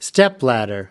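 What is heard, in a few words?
"stepladder", American English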